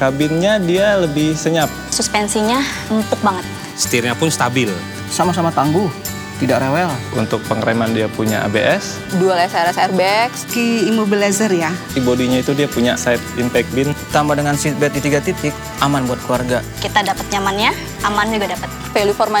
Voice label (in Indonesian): MIX RADIO TOYOTA AVANZA; KATA MEREKA TENTANG TOYOTA AVANZA